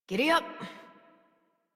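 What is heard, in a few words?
horse start adult, English; Warframe; Duviri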